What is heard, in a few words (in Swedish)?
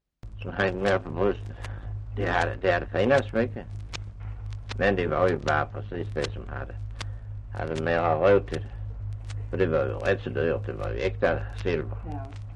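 Östra Ingelstad Skåne, dialekter; Folkminnen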